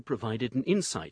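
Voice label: "provided an insight", Standard Southern British English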